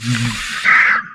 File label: humanoid portrait male; Stellaris; humanoids